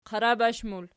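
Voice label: "qarabash" plural, Archi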